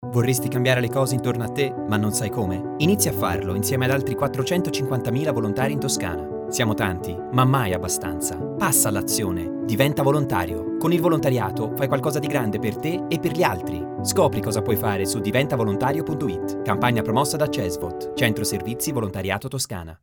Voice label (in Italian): spot radio voce uomo; cesvot